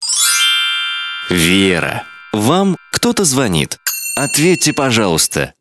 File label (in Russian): Женские именные звонки - Звонок Вере